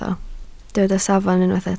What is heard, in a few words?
"safon", Welsh